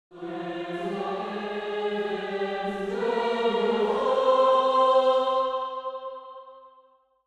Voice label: Angelic choir angels let the heavens guide your path